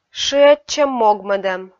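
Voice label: "шыӧдчӧм-могмӧдӧм", Komi, female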